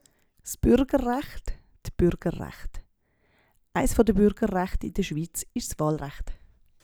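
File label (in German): s Bürgerrecht, Swiss German; Wortschatz; Hauptwortschatz; Wortschatz Kapitel 8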